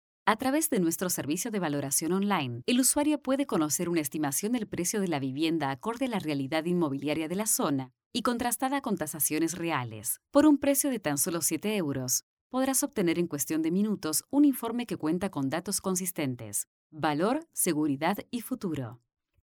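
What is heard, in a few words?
Spanish Latin America female narrative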